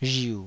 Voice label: "rhiu3", taiwanese